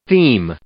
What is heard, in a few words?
"theme" noun